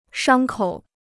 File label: "伤口", Chinese